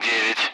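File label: Speech Russian; Allied Flight; Digits; DCS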